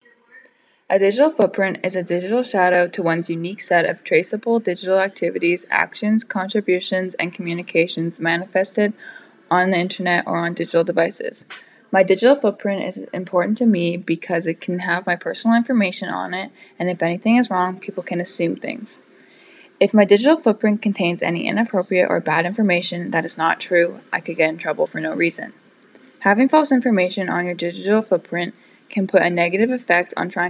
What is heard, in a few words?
Podcast; Digital Foot Print